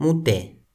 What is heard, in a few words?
"mute", Toki Pona; lipu linku